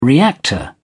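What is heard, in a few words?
"reactor", British English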